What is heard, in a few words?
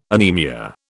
"anaemia", British